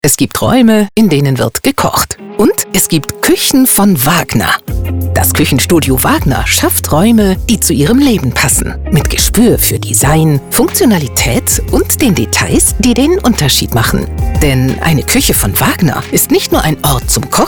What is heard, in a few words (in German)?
Beispielspot; Kuechenstudio